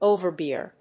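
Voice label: "overbear", English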